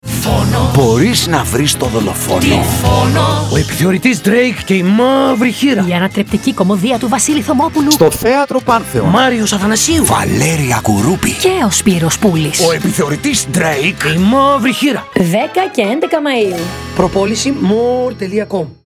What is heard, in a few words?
Radio Spot; Drake; Pantheon 2026; o epitheoritis ntreik kai i mavri chira sto pantheon